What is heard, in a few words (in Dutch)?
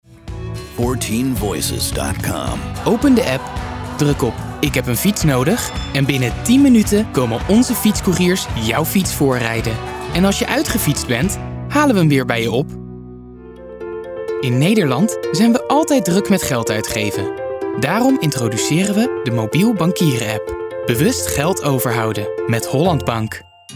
Commercials